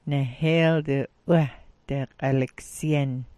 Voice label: "'wah", smalgyax